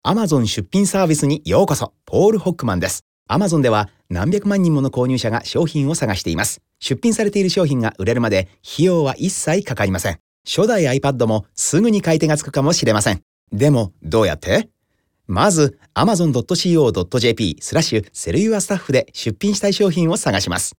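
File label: Japanese, man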